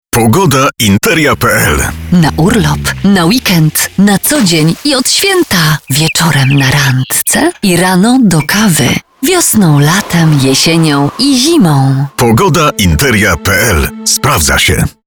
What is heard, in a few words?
Female VO Commercial